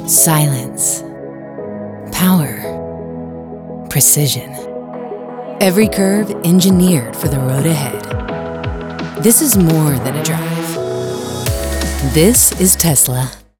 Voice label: female voiceover commercial; tesla